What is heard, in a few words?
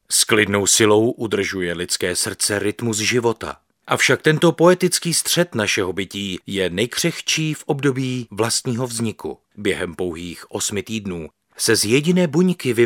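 male Czech documentary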